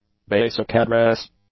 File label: "basic address", English; nouns